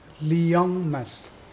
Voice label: malaria, Mokilese